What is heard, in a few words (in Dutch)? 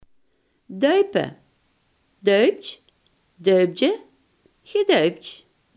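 "dopen" Limburgish dialect word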